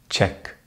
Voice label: "tchèque", French; Consonantes en francés; easypronunciation